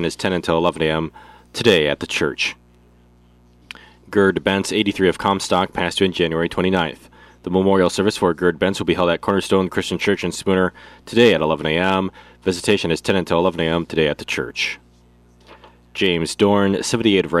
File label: Funeral Announcements